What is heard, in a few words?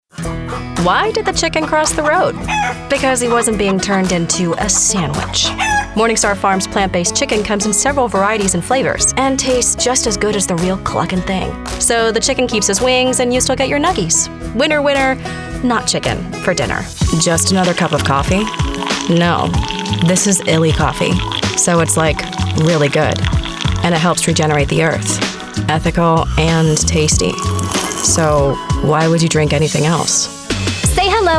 Commercial